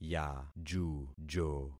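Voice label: "yajujo", concatenated syllables; Affixing experiment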